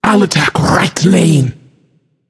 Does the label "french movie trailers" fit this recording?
No